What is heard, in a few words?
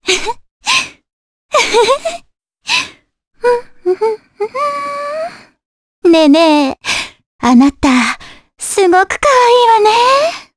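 vox get Japanese; Cassandra; kingsraid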